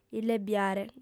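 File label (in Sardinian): "illebiare", female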